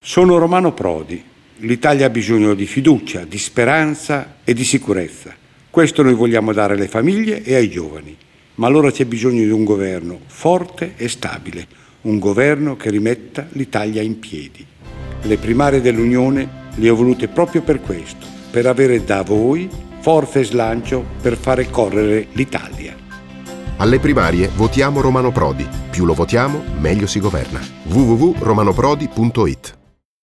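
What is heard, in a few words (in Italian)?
Spot radiofonico; Romano Prodi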